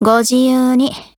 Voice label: PHRASE, JP